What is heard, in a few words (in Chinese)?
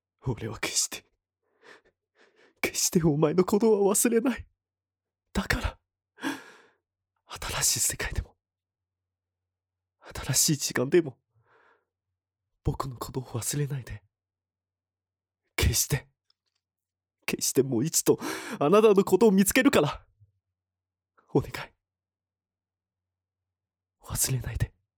悲